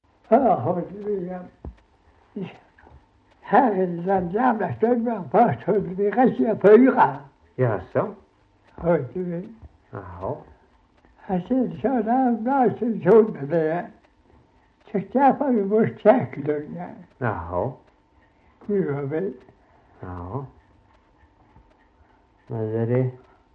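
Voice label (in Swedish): Slättåkra Halland, dialekter; Folkminnen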